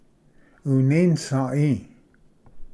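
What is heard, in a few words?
"uninasai", Cherokee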